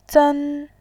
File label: "zen1", voice